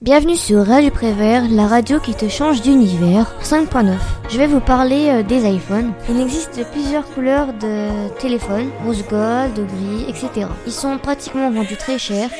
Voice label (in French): presentation; iphone; radio prevert